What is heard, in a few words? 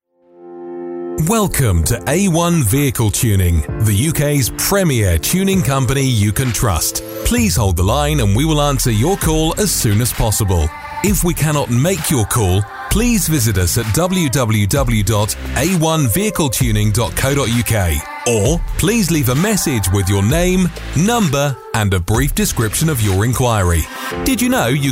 ivr